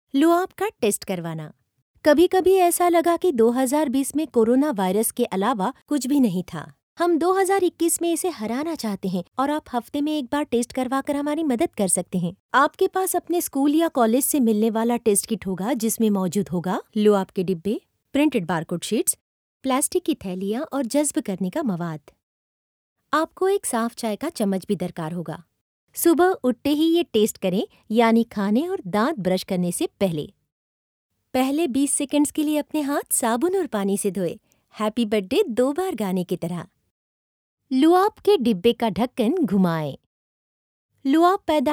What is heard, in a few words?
Urdu; Corona Saliva Test Demo VO